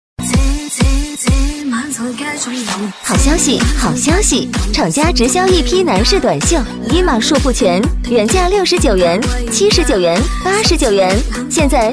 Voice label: 甜美女声 厂家直销男士短袖; 激情力度

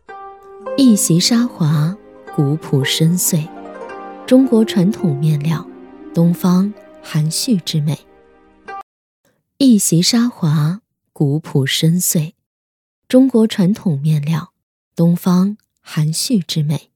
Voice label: 女 大气中国风 东方含蓄之美; 年轻舒缓

